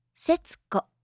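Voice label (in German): "Setsuko", japanische Mädchennamen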